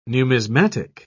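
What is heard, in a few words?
"numismatic", English, British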